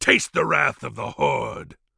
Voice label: warcry en; Nazgrel

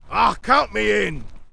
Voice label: yes, en; Gryphon rider

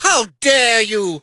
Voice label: hurt

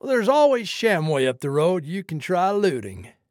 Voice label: trader male like sale declined

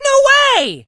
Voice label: hurt vo

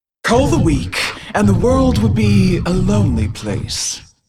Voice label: Hero female demon hunter morphed pissed

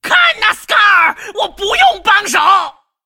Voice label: attack, zh

